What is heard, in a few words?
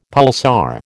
"pulsar", American